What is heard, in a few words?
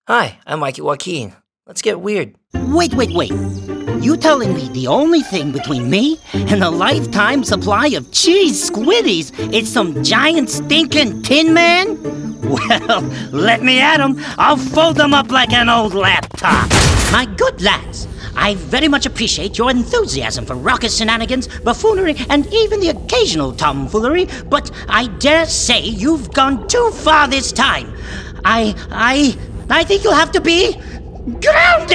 Animation